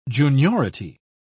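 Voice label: "juniority", British English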